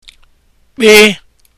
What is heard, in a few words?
kp letter, igbo; Kpakpando; Star